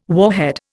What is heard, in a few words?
"warhead", Indian